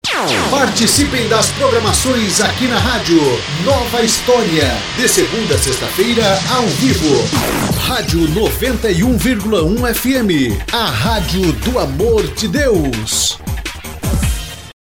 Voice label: fm vinheta participem; 91.1 fm; Rádio Nova História

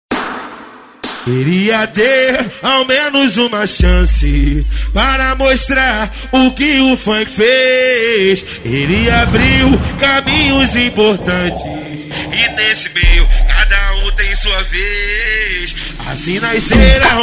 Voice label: mestre de cerimonia

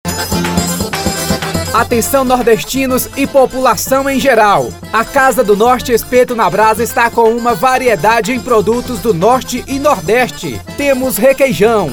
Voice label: voz locutor; esperto na brasa

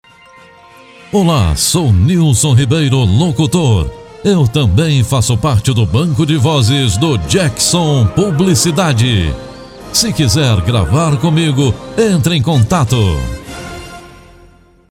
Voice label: locutor

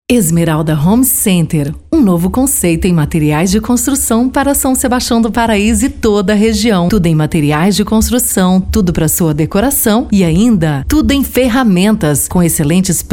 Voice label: locutora, locução Brasil; Banco De Vozes; Soluções Em Audio

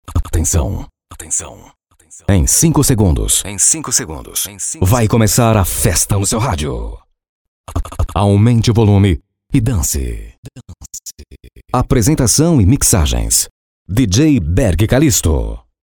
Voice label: vinheta voz locutor

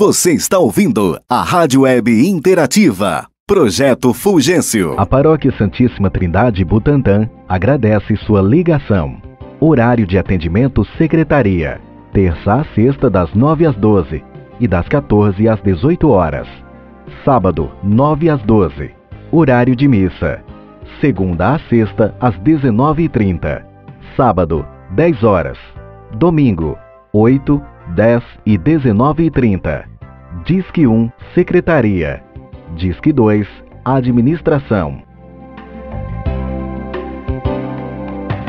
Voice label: Comercial Para Moto Som Propaganda, Moto Som Publicidade, Carro De Som, Bicicleta Som Propaganda; bike

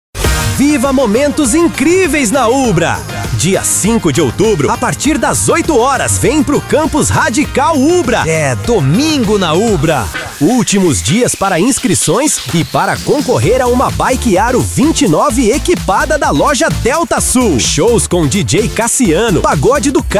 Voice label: locutor

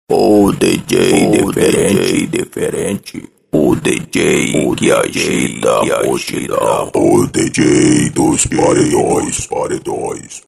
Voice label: vinheta para djs voz off estudio de gravacao; Vinheta Para Dj',S Voz Off Estúdio De Gravação Cg&Mrproduções

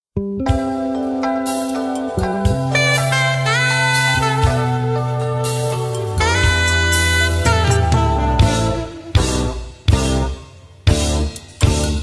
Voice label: msg locutor

